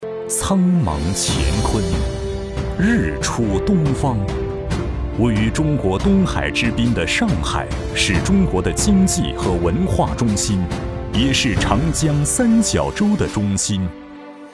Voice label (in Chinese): 男, 上海宣传片